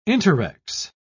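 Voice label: "interrex", British English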